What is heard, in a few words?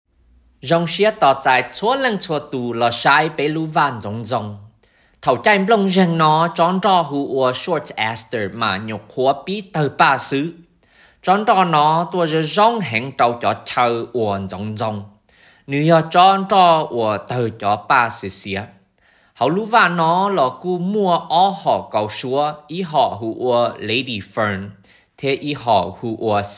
Fall Shade Hmong